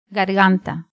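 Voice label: "garganta", Spanish, cuba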